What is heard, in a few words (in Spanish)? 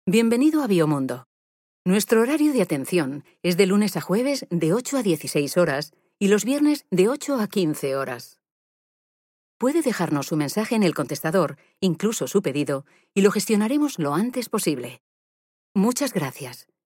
contestador Horario verano; Biomundo